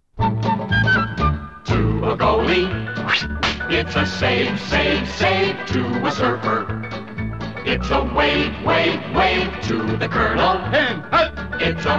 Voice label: TV Commercials - Kent cigarettes (To A Smoker It's A Kent)